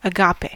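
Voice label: "agape" noun, US English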